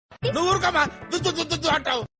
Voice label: telugu funny dialogue; brahmanandam

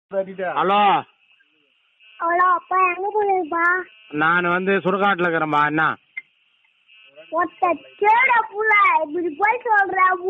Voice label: dialogue tamil funny; enga irukka appa

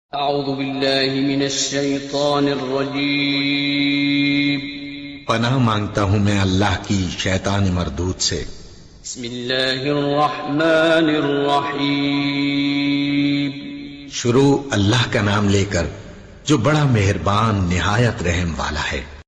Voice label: audhu billahi bismillah islamic

